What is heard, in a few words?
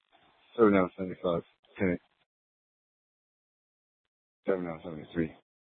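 Mesa Police Department Superstition Dispatch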